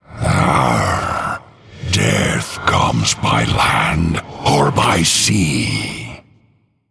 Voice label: Male Human

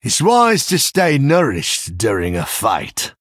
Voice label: Male Human; Elling

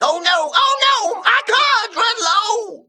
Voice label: Male Mech Emote Low Cards

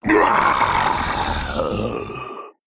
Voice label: Male Worgen Death

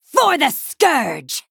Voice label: Female Human Attack

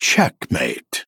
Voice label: Male Chess Piece Attack

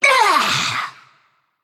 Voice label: Female Naga Death

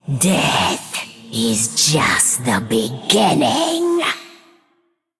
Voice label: Female Banshee

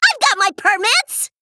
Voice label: Female Gnome Play

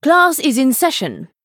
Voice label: Female Night Elf Start

